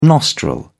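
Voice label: "nostril", British English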